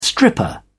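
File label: "stripper", British English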